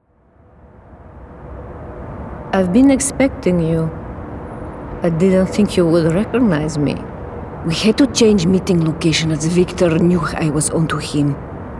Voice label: Eastern European VO Reel Gaming Special Agent